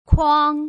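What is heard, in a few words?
"kuang", Putonghua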